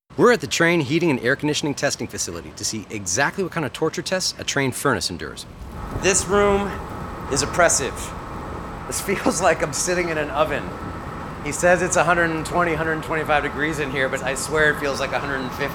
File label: attic radio